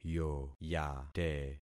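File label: "yoyate", concatenated syllables; Affixing experiment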